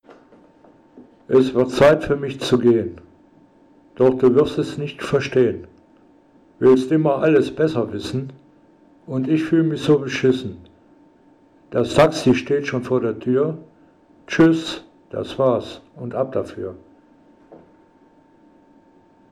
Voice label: dees voice, deesser; XILS; Xils Lab